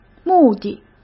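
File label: "目的", Mandarin Chinese; hsk; chinese-flashcards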